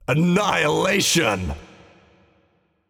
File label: Announcer Vox Spree Annihilation